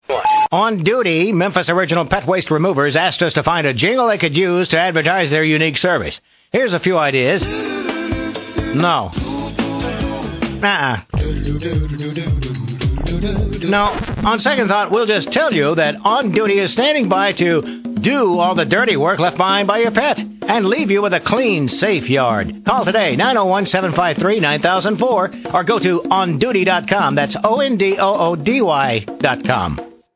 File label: commercial